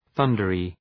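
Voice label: "thundery", English; {‘ɵʌndərı}; (Επίθετο)● θυελλώδης καιρός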